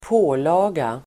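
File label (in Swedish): "pålaga", Swedish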